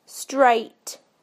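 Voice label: "straight", British English; PictureDictionary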